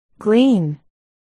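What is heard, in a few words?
"glean", spoken word, articulation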